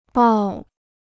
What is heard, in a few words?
"抱", Chinese